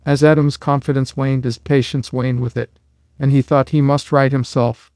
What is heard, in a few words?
male; flowtron